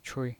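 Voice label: "chui", Mandarin Chinese pinyin voice, neutral tone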